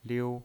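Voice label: "liu", pinyin, Mandarin Chinese, first tone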